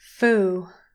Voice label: "fool", english pronunciation